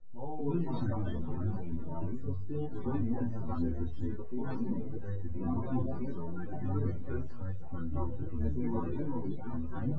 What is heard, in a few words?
male4 synthconv 250ms 5cm src